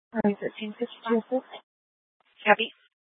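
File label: police department Dispatch, scanner; TOPAZ Regional Wireless Cooperative (TRWC) - Mesa Simulcast; Mesa PD; Fiesta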